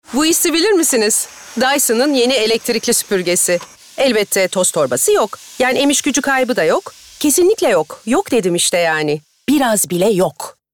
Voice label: Komik Esprili

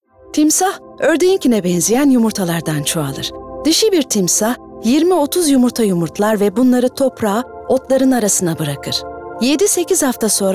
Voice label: Belgesel

